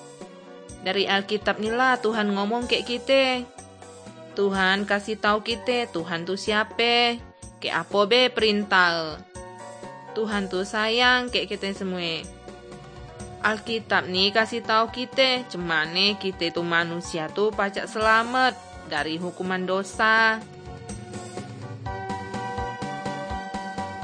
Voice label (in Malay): kabar baik good news malay bangka